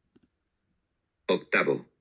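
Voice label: "octavo", Spanish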